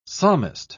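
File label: "psalmist", British English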